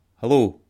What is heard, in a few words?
hello, scots